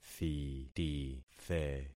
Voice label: "thitithe", concat syllables; Affixing experiment